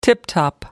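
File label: "tiptop", British English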